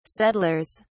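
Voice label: "settlers", British English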